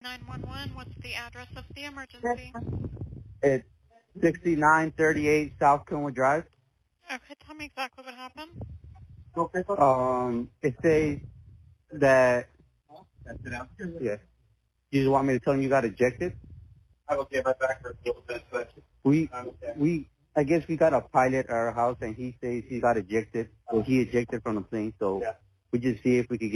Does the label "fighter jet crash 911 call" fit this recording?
Yes